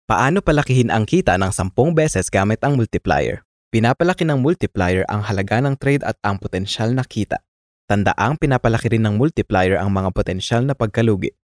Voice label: Filipino Casual Friendly